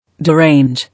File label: "Derange", English